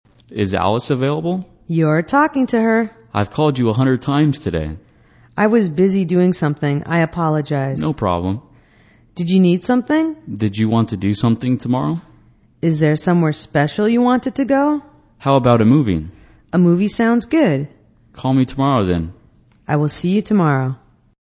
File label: english conversation calling a friend